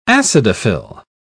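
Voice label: "acidophil", British English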